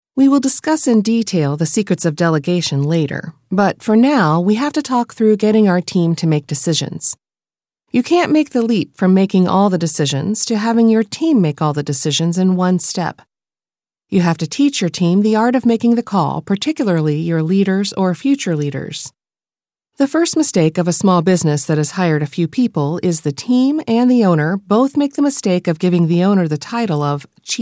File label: Audio books, American; USA